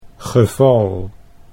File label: "geval", Dutch